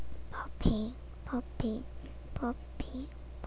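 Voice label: "puppy", spoken word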